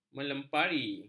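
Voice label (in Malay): "melempari", Malay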